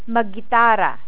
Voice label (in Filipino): "maggitara", Tagalog